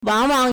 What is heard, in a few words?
"wang3wang3", Chinese